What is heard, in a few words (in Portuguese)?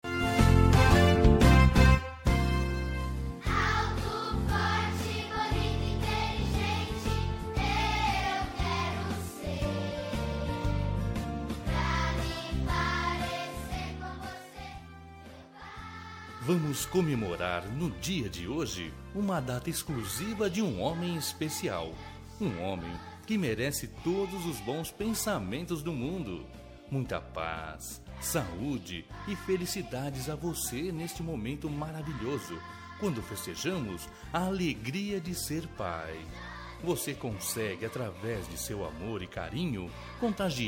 masc, Dia dos Pais – Voz Masculina